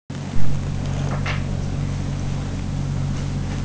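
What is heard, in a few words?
"lu4", Mandarin Chinese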